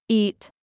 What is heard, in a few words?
"eat", English; minimal pairs quiz